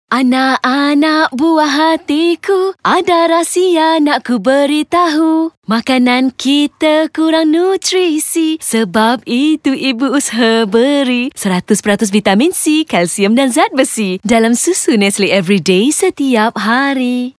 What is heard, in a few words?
Radio; Nestle Everyday